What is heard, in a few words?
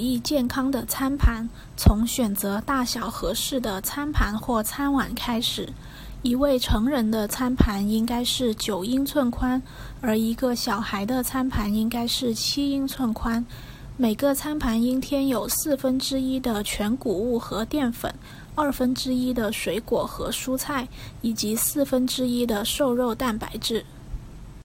Building A Healthy Plate Mandarin Chinese; NLM-funded health education handout materials